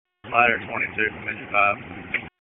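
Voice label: Public Safety Tactical, p25; wake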